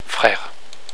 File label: "frere", french